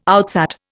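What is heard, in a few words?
"outsat", Indian English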